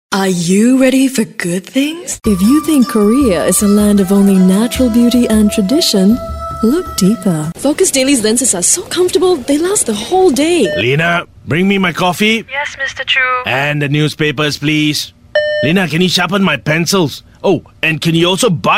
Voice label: Asian English, English Singaporean Female; AdMix